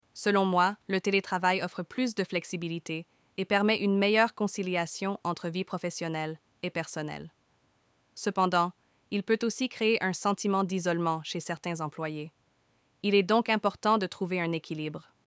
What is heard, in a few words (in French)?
Accent belge Teletravail